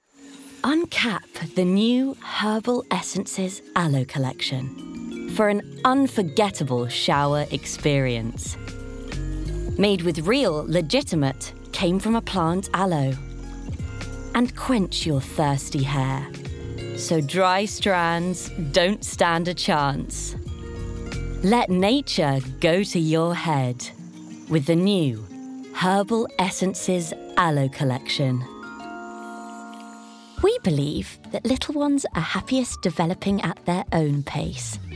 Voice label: Commercial